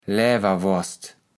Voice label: "Läwerworst", kaevels platt

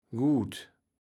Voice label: "gut", kaevels platt

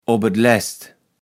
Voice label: "opetlest", kaevels platt

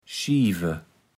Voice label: "Schiefe", platt

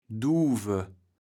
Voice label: "Duuwe", kaevels platt

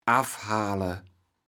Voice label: "affhale", kaevels platt

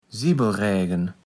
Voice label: "Sibbelrägen", platt

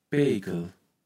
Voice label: "Peekel", kaevels platt

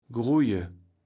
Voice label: "gruje", kaevels platt

